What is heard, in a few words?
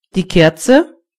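candle, German voices words; gcompris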